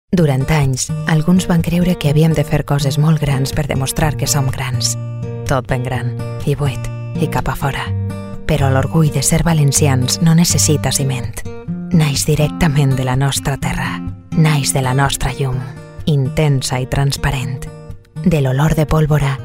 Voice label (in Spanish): Femenino